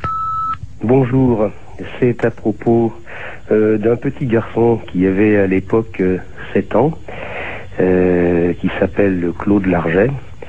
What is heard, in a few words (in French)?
Oradour-sur-Glane repondeur